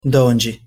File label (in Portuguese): pronunciation pt "donde"